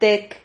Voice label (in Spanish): "tec", Silabas